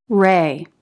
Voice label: "ray" reɪ, English